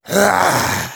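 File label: negative vocalization, German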